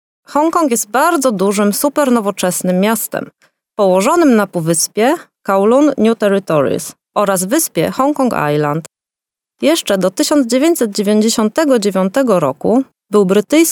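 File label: Polish female corporate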